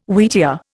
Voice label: "wheatear", Indian